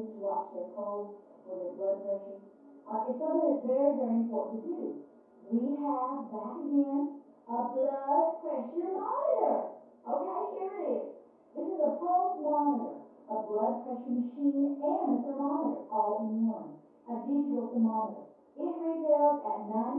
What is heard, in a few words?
Television; Home Shopping Show With Announcer And Typing Sounds. From Down Hallway.